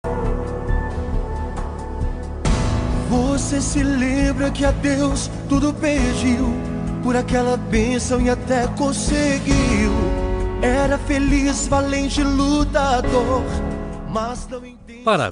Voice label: voz masculina; dia das maes para sogra

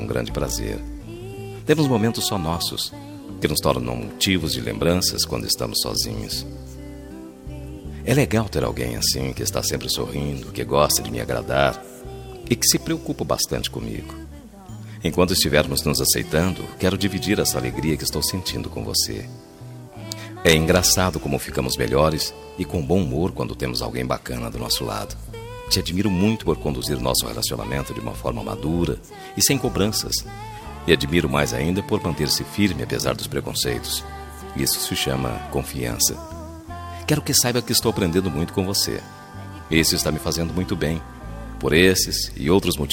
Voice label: lgbt masc, Dia dos Namorados para Namorado LGBT – Voz Masculina

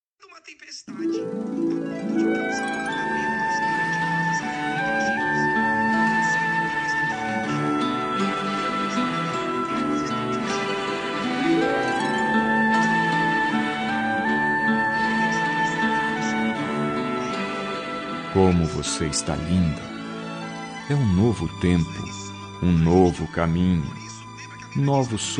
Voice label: gravidez masculina, Telemensagem de Gestante – Voz Masculina

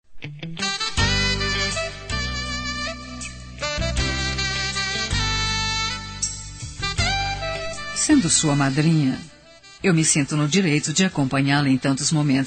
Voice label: afilhada fem, Aniversário de Afilhada – Voz Feminina; Telemensagens para todas as ocasiões

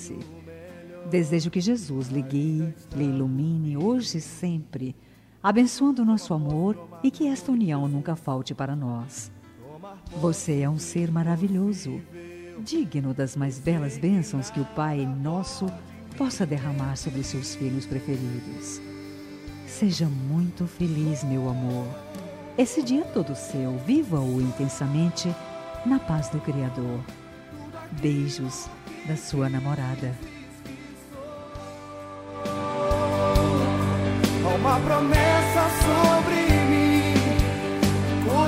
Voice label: namorado gospel, Aniversário Namorado Gospel – Voz Feminina; Telemensagens de Aniversário

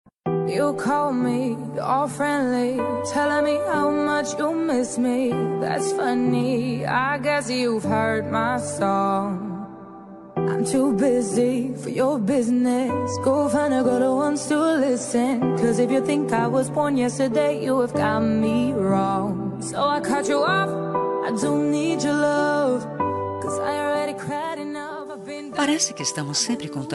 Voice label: romântica marido, Telemensagem Romântica – Para Marido – Voz Feminina

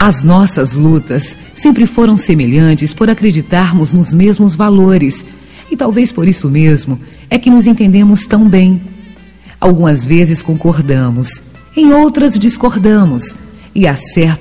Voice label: aniver filho consideracao fem, Aniversário de Filho – Voz Feminino; Telemensagens para todas as ocasiões

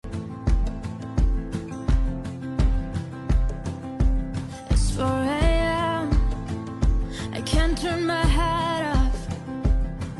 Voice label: esposa, voz masculina; dia dos namorados para esposa voz masculina

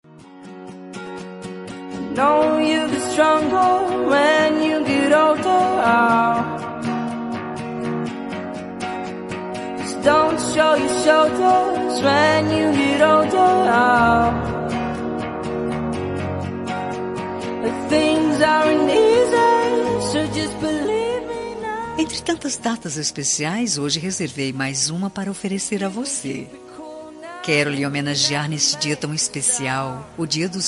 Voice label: tio fem, Voz Feminina; dia dos pais para tio voz feminina infantil

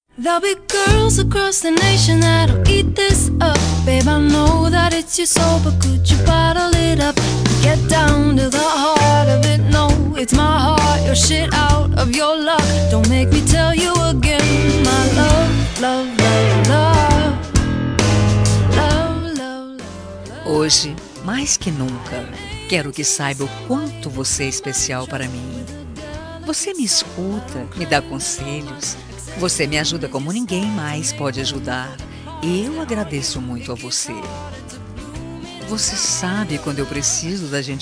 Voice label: aniver amiga fem

